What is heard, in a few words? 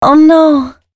female spoken hooks, dance ultra voice